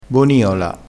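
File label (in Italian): "boniola", dialettale crespinese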